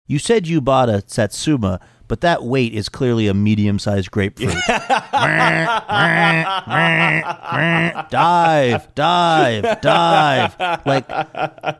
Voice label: simulating self checkout machine

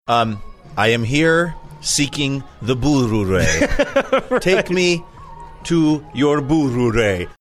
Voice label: having too much fun rolling rs

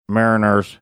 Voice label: muttering; mariners

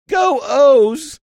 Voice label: saying goos sheepish

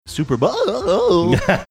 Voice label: saying super bowl funny

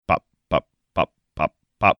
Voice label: recreating even firing engine sound

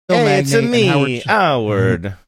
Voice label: saying ay its me howard bad italian

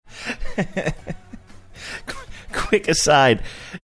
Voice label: laughing saying quick aside

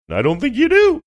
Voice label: saying i dont think you do funny

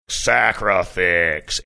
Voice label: saying sacrafix deep aggressive

